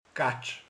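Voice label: "kacsa", Hungarian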